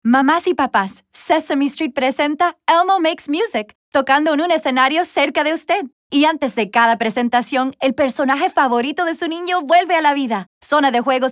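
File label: Spanish, spot, voice; elmo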